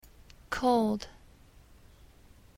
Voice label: cold